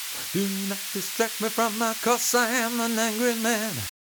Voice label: Masking Vocal Noise EQ

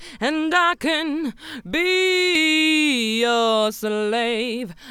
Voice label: Vocal Editing Masked Edit Solo

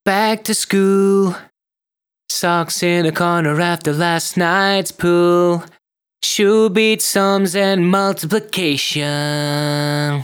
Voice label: Vox De-ess

